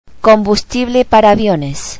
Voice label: "jet fuel", Spanish